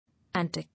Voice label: "Antic", English

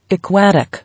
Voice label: "Aquatic", English

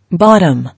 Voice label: "Bottom", English

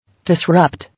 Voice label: "Disrupt", English

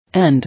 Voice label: "End", English

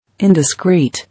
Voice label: "Indiscrete", English

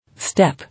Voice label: "Step", English

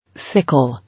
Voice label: "Sickle", English

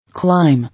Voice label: "Climb", English

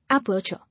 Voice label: "Aperture", English

